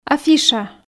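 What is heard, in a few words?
"афиша", Komi, female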